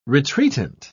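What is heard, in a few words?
"retreatant", British English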